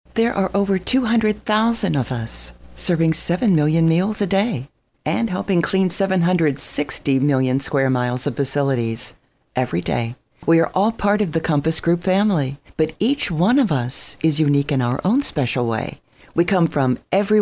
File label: narrative; compass group